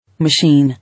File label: "Machine", English